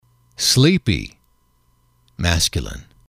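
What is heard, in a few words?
"sleepy", English, male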